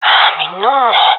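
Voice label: scared, female; vo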